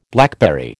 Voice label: "blackberry", American